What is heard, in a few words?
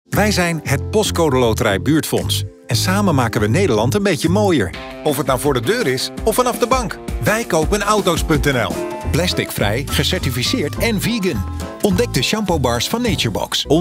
commercial